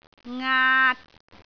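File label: "aat3", Cantonese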